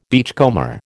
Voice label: "beachcomber", American

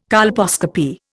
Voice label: "colposcopy", Indian

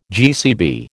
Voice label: "GCB", American

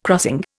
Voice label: "crossing", Indian

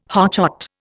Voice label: "hotshot", Indian

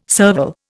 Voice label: "serval", Indian

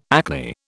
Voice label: "acne", British

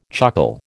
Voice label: "chuckle", American

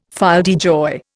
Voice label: "fille de joie", Indian

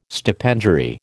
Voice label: "stipendiary", American

